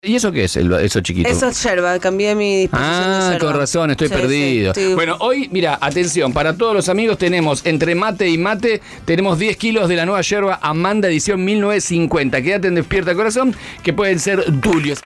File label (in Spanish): Pop Radio concurso Yerba Mate